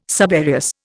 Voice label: "subereous", Indian

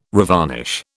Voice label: "revarnish", British

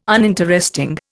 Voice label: "uninteresting", Indian

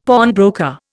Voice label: "pawnbroker", Indian; Dictionary

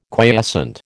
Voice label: "quiescent", American

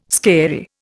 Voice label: "scary", Indian

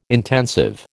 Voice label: "intensive", American

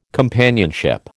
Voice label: "companionship", American

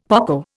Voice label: "poco", Indian; Dictionary

